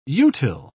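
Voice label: "utile", British English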